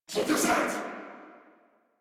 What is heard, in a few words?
Player Spotted, Battle Barks